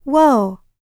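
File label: licks, female spoken; ILIO; Vocal Planet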